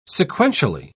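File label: "sequentially", British English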